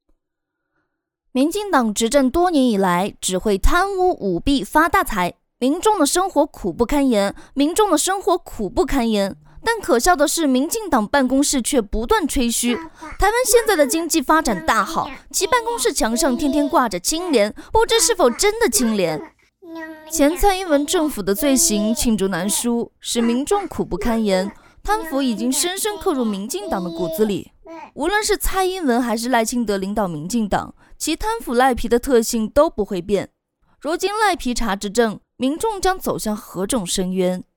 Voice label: 女 台湾腔

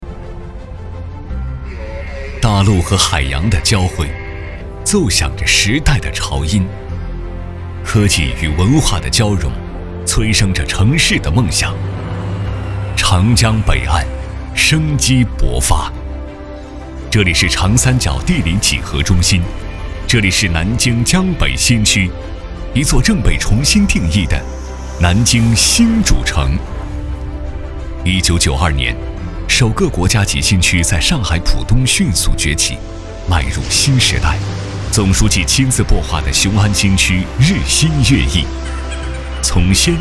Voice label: 男 宣传片; 南京江北新区

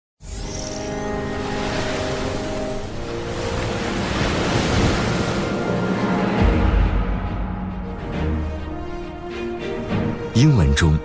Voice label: 男 纪录片 央视; 亚马逊云科技

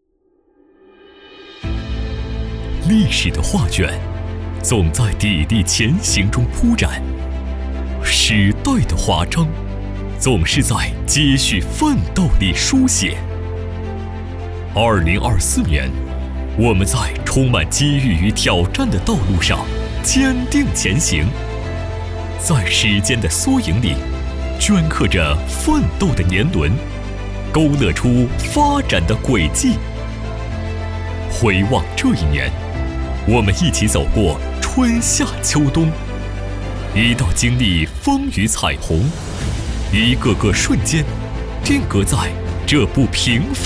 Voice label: 男 颁奖词

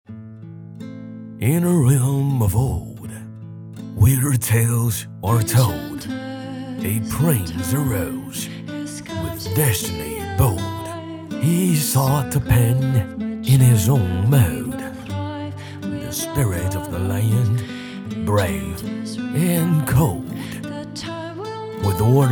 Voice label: 男 英文角色

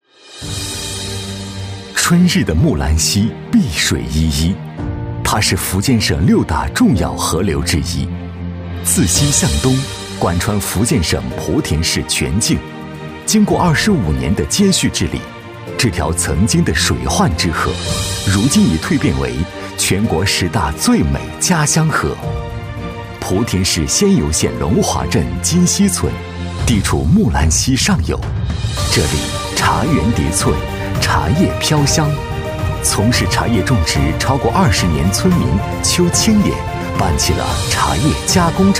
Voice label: 男 银行; 莆田农行

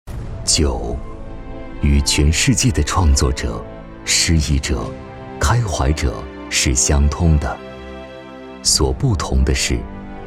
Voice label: 男 广告 沉稳; 汾酒

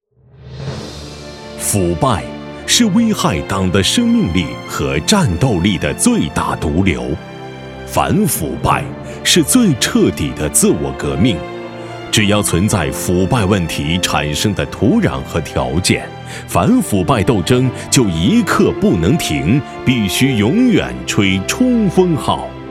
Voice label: 男 专题 央视风格; 反腐

